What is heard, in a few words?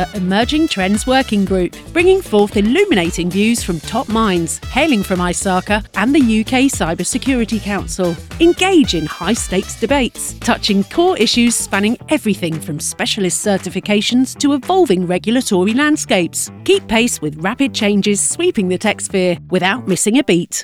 Podcast Intro; The Cyber Standard Podcast